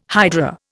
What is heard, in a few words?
"hydra", Indian